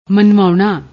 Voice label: "manvaouna", Punjabi; ਮਨਵਾਉਣਾ

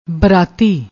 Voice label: "braati", Punjabi

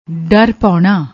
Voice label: "darr paouna", Punjabi

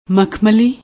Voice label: "makhmali", Punjabi; ਮਖਮਲੀ

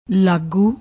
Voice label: "laagoo", Punjabi

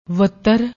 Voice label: "wattar", Punjabi; ਵੱਤਰ

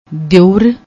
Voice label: "dioor", Punjabi; ਦਿਓਰ

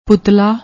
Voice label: "putlla", Punjabi

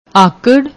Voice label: "akarr", Punjabi; ਆਕੜ

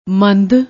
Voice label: "mand", Punjabi

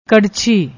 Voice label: "krrchhi", Punjabi

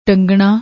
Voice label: "tangnna", Punjabi; ਟੰਗਣਾ

